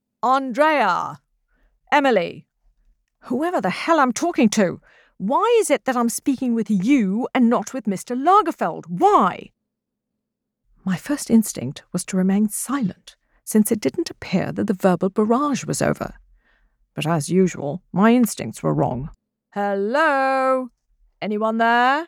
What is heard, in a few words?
voiceover